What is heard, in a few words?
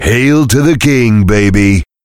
heavy positive vocalization; tf; duke